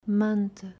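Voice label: "Manta", Portuguese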